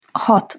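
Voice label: "hat", Hungarian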